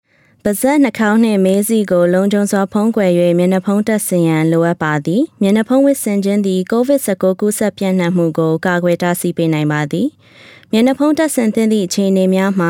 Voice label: PSA Burmese Key Times to Wear a Mask; CDC Covid; coronavirus 2019 ncov